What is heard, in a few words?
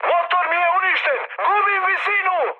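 Serbian damaged engine mood high, speech; War Thunder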